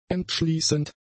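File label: "entschließend", German; adjectives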